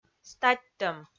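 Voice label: "статьтӧм", Komi, female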